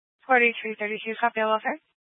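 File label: Sheriff Dispatch, Scanner audio recording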